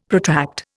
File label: "protract", Indian; Dictionary